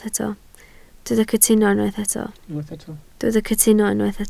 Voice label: "cytuno", Welsh